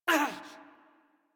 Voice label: Generic Land Pain Operator Voice en; Operator Mission